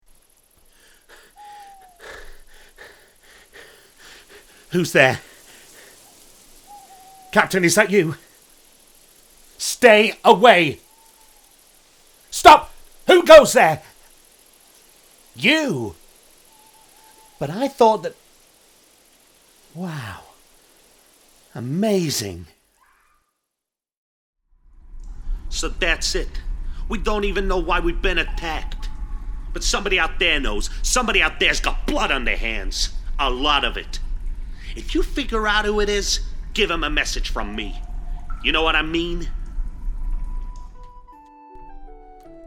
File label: voice reel video games